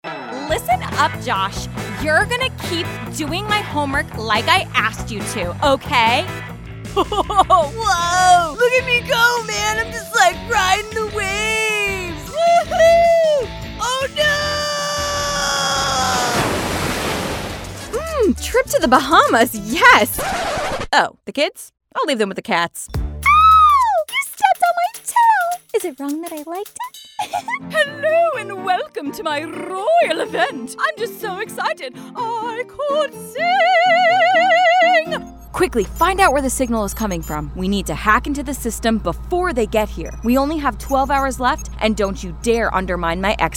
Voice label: Animation